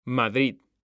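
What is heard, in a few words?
"Madrid", Spanish, male; mekanta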